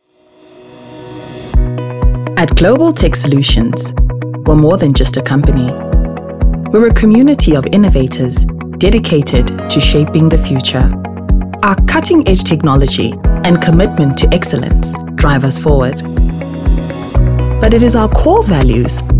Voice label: Corporate